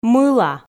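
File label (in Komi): "мыла", Komi, female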